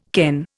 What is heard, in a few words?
"ken", Indian